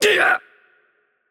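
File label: Spanish attack heavy voice; bloom minigame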